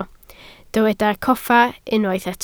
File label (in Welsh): "coffa", Welsh